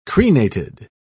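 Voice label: "crenated", British English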